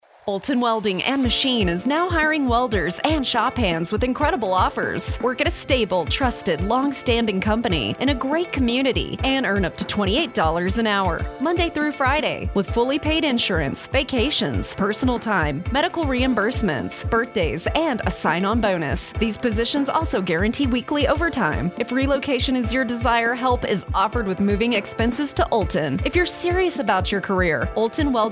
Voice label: Radio Ad